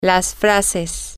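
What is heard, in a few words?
the phrases, Spanish, female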